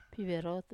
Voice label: "piverotu", Wamesa